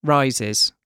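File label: "rises", British English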